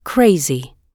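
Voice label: "crazy" spoken, British English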